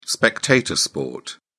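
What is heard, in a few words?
"spectator sport", English, British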